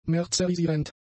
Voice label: "merzerisierend", German; adjectives

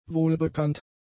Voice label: "wohlbekannt", German; adjectives

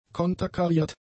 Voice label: "konterkariert", German; adjectives

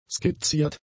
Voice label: "skizziert", german; adjectives

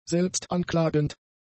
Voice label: "selbstanklagend", German; adjectives

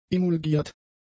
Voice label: "emulgiert", german; adjectives

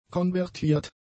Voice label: "konvertiert", German; adjectives

